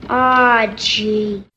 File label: "aw gee", g-rated swears; expletives